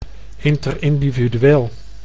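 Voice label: "interindividueel", Dutch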